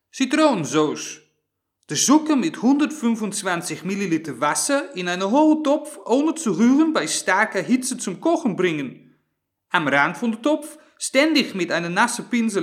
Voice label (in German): Hollaender, Dialekte